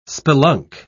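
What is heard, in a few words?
"spelunk", English, British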